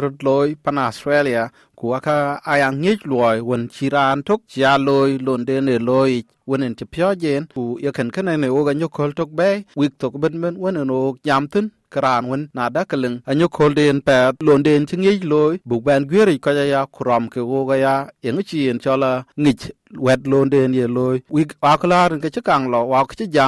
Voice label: dinka; SBS Dinka